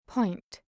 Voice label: "point", voice overs